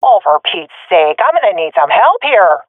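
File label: request help, swat female; vo; Counter Strike Model Pack; CS:GO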